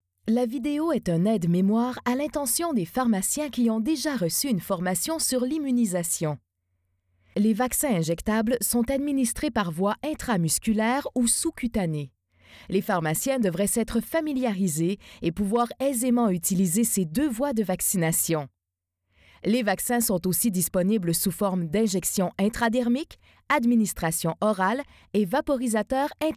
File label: ELearning, Smiling, charming, professional Female Voice